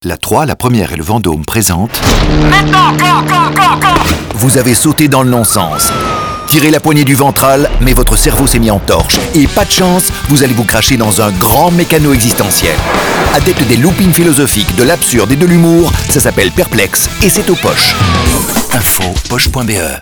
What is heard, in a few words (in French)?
spot radio; Perplexe